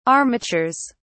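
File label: "armatures", British English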